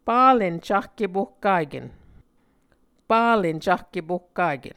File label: "palen cahkepohkaken", Muscogee